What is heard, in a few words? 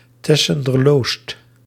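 "Tessenderloost", Dutch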